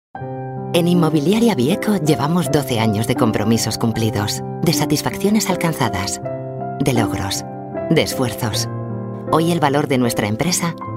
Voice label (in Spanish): anuncios; vieco